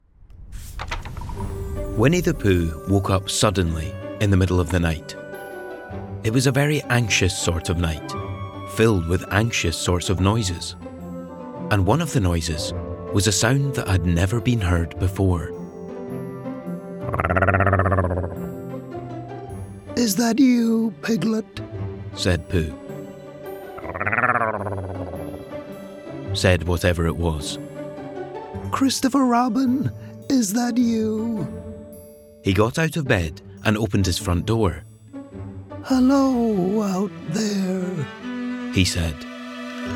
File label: Audiobook Narration, 20s – 30s. Male. Scottish; Studio.